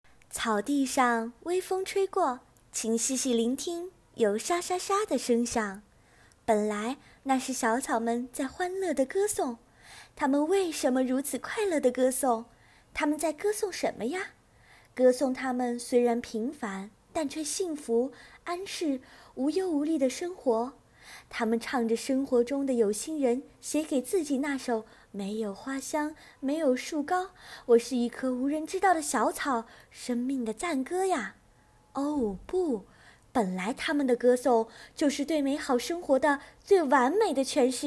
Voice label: 甜美邻家女孩

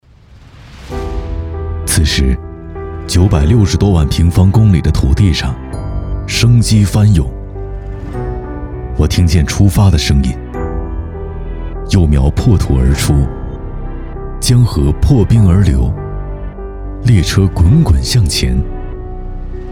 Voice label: 专题独白高级感; 中国再出发

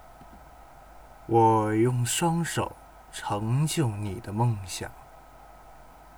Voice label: 干音

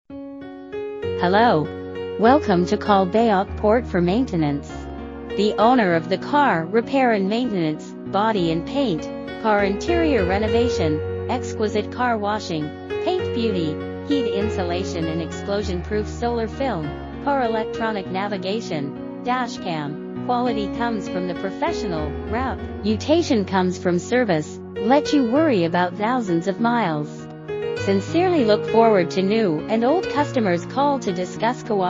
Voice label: 只读英文 女

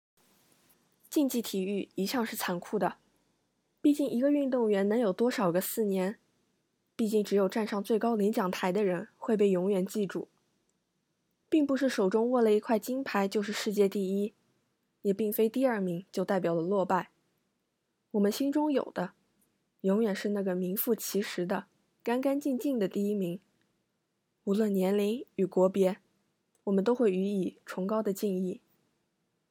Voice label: 中英致运动员们

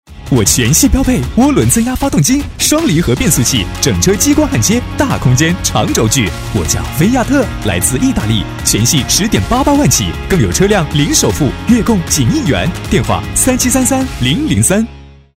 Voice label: 广告活泼欢快; 广汽菲亚特

